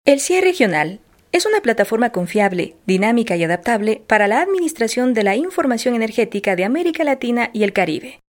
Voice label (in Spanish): Locucion